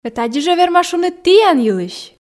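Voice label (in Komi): ме тадзи жӧ верма шуны тіян йылысь, Komi, female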